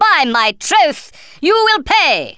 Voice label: just you wait, Speech Thespian; Worms Armageddon